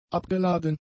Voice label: "abgeladen", German; adjectives

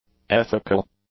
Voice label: "ethical", English; adjectives

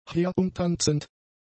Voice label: "herumtanzend", German; adjectives

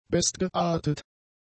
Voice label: "bestgeartet", German; adjectives

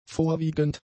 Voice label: "vorwiegend", German; adjectives

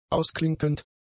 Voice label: "ausklinkend", German; adjectives

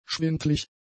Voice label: "schwindlig", German; adjectives

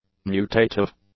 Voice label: "mutative", English; adjectives

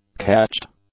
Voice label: "cached", English; adjectives

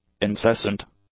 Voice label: "incessant", English; adjectives

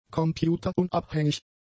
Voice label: "computerunabhängig", German; adjectives

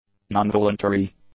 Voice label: "nonvoluntary", english; adjectives

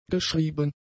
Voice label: "geschrieben", German; adjectives

